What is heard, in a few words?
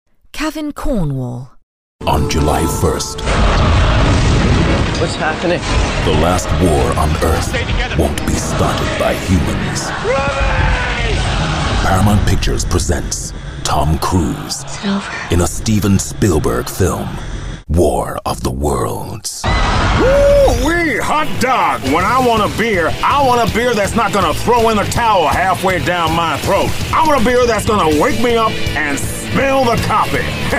coms